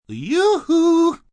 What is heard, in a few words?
Yoo Hoo M, male; Calls, attention